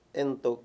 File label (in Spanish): "y", Mayo; Palabras con distintas funciones